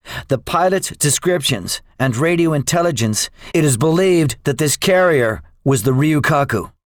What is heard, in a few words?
debriefing, english; War Thunder